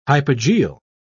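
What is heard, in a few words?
"hypogeal", British English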